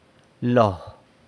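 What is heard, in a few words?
"lo", face, San Lucas Quiaviní Zapotec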